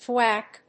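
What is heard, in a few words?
"thwack", English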